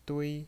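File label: "dui" Mandarin Chinese, first tone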